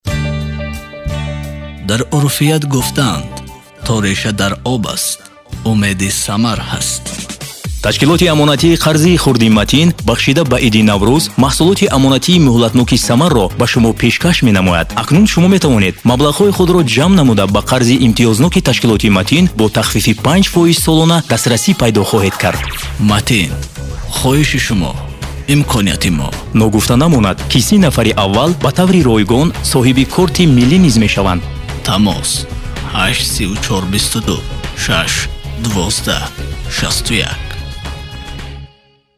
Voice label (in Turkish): Tacikce Erkek, seslendirme; erkek sesler